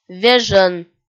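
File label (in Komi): "вежӧн", Komi, female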